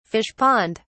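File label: "fish pond", British English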